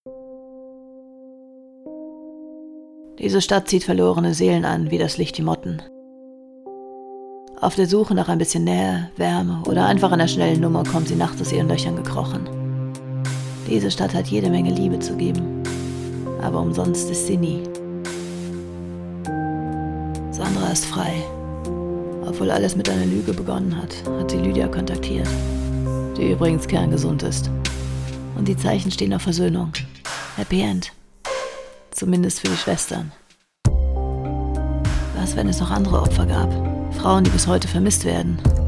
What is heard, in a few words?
Voiceover Film-Noir